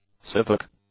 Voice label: "civic", English; adjectives